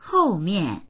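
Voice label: "hou4mian4", Mandarin Chinese; 后面; 後ろ側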